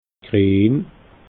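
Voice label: "grien", Alsatian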